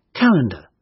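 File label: "calendar", British; Technology; Công nghệ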